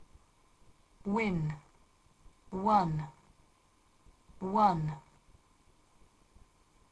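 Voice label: "win", English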